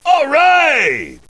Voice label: cheer; duke nukem